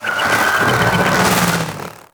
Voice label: Vocal Death; Warframe; Sentients; Conculyst

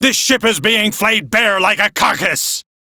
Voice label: Crew Ship Low, Male, en; Warframe; Railjack Crew; Arbiters Hexis

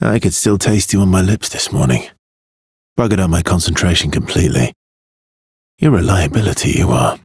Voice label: Ambient Barks, en; Warframe; Mall Romance; Arthur

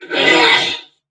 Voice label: Struggle Crewmen; Warframe; Corpus Crewmen; Crewman E; Dialog Barks Battle

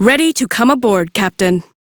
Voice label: Crew Hired, female; Warframe; Railjack; Cephalon Suda

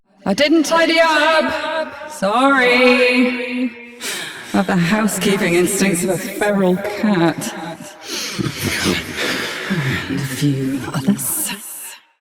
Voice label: Ambient Barks, en

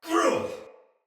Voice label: Pain, Soldier Male; Sinecure Soldier Male A

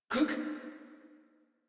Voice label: Surprised Female; Warframe; Modular Corpus Female

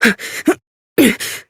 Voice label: Horse Mount Adult, Dialog; Duviri

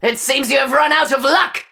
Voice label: Player Spotted Ranged, Battle Barks; Tau; Tau Dax

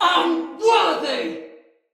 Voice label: Attack, Male, en; Sinecure Banneret

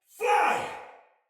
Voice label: Shoot Arrow Soldier Male; Battle; Barks; Dialog; Sinecure Soldier Male A

